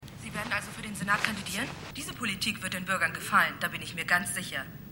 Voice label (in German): TV Interviewerin; Outer Limits